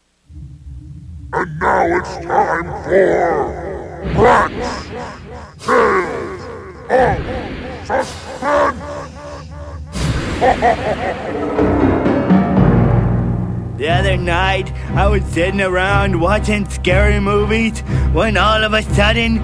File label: Phone Call; Tales Of Suspense